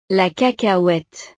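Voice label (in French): "la cacahuete", French; frutas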